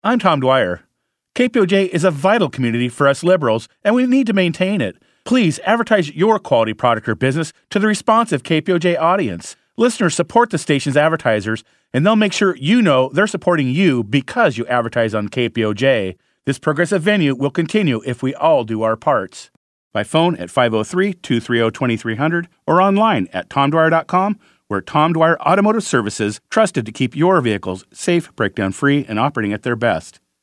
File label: Testimonial; KPOJ